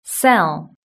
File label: "sell", british english